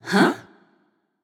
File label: confused; smite; skadi